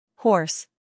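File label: "horse", American English, female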